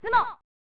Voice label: "Tsumo!" (Female); pro mahjong kiwame 2; snes